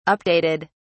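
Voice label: "updated", British English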